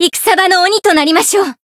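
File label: variable chant, Japanese; tsukiha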